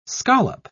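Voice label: "scollop", British English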